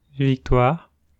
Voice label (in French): "Victoire", French